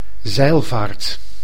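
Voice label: "zeilvaart", Dutch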